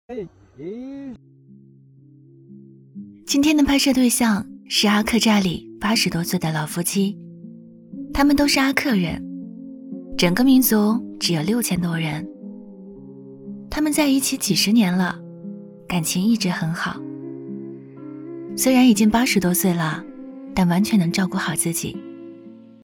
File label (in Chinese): 短视频旁白; 阿克人